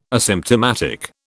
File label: "asymptomatic", British